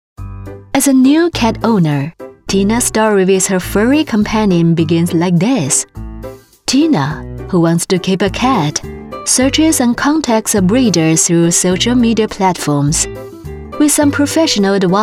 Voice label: 英文口语聊天

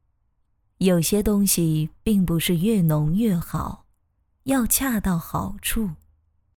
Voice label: 房产广告试音

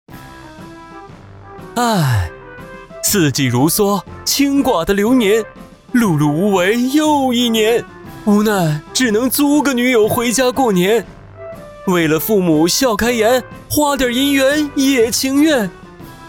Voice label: 搞怪 动画

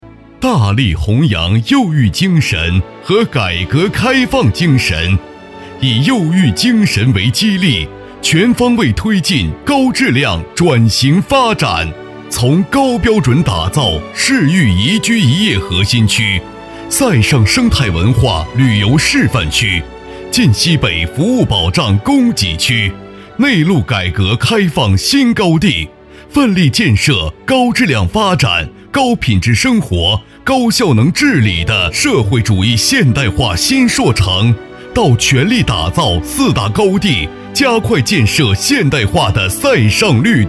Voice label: 宣传浑厚大气激昂; 右玉精神

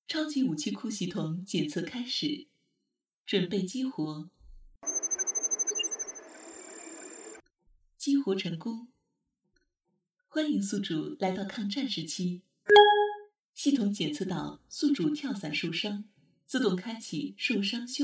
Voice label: 女角色; 男频三播试音

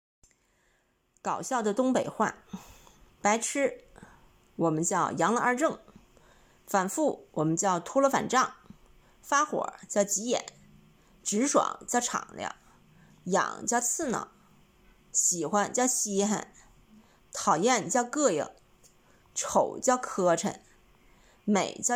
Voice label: 搞笑东北话

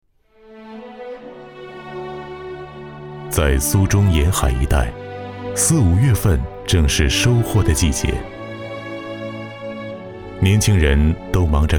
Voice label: 纪录片人物; 红帆船

